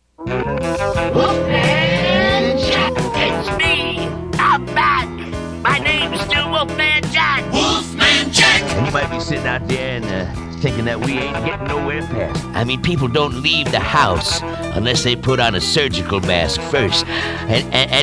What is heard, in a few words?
radio promo; WOLFMAN JACK; MASKS